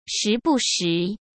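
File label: "时不时", Mandarin Chinese; chinese-flashcards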